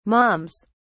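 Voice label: "moms", British English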